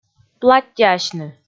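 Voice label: "платтьӧасьны", Komi, female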